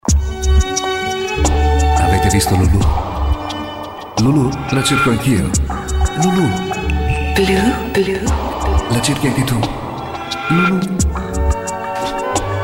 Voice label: italian commercial